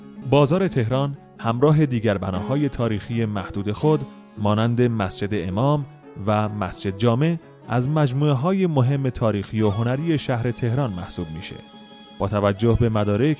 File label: Farsi